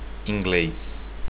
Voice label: english, portuguese

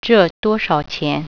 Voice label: "cost", Mandarin

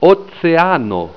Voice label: "oceano", esperanto

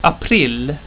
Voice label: "april", swedish

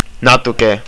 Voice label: a little, estonian

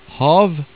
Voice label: "hav", Norwegian

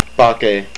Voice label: "Chinese", hawaiian

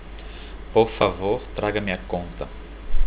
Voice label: bill, portuguese

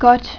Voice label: "Godt", Danish; Good

